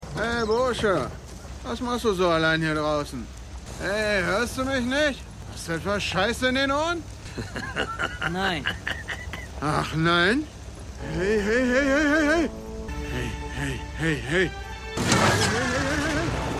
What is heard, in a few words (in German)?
Redneck; Stimmproben; Fargo